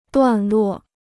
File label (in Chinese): "段落", Chinese